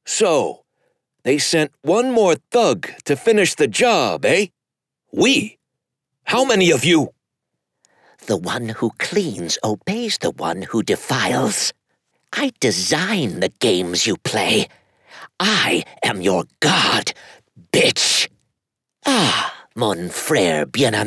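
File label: character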